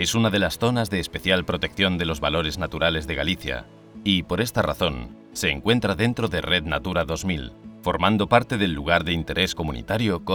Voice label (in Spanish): audioguia capelada